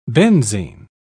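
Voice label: "benzine", English, British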